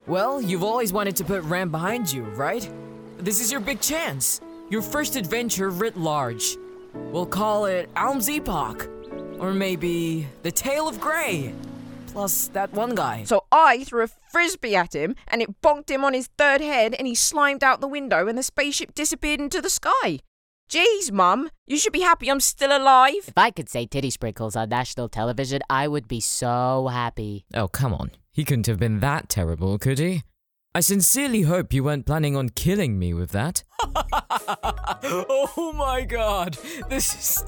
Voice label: Voice Acting